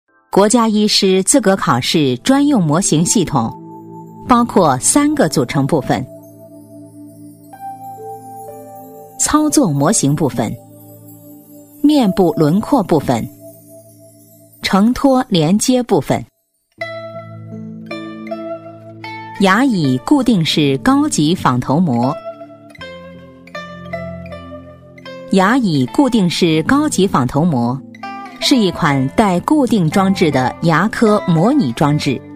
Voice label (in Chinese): 女 PPT培训课件 牙科模型操作, 沉稳大气